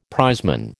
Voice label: "prizeman", American